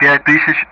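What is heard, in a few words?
Speech Sound Russian; DCS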